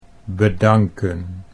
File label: "bedanken", Dutch